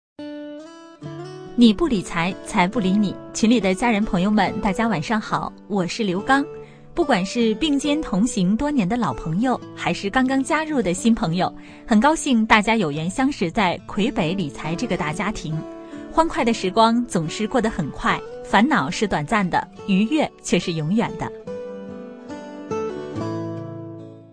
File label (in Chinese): 女 专题 电台讲座